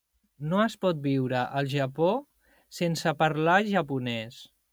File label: catalan male speech